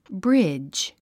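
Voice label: "bridge", English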